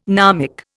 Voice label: "gnomic", Indian